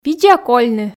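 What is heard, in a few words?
видза кольны, Komi, female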